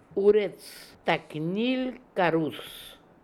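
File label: "Ooretstaknilkarool", pronunciations; Fourth Way; Beelzebub pronounciations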